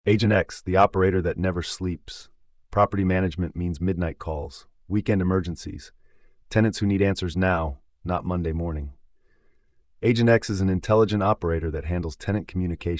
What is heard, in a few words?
narration